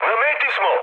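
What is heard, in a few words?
Serbian near waypoint mood med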